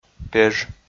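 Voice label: "пеж", Komi, male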